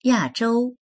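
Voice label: "ya4zhou1", Mandarin Chinese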